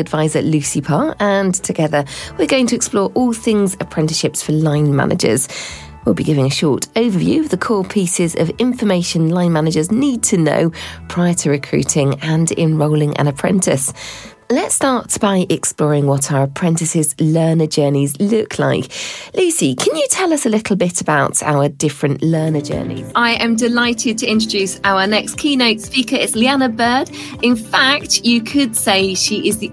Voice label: podcast host

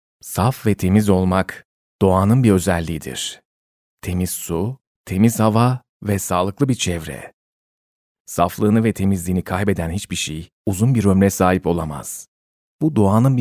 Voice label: Turkish voice explainer, Warm Friendly Turkish

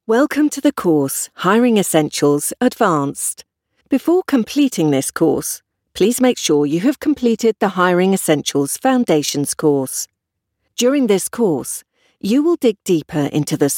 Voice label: elearning

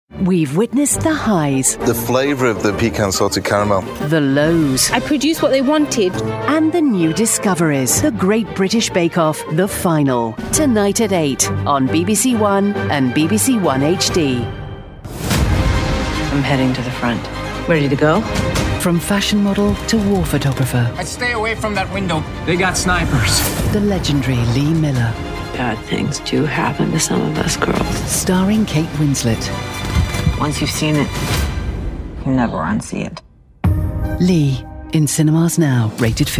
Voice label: tv trailer; bbc; bake off

